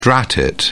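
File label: "drat", British English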